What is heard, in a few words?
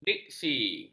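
"diksi", Malay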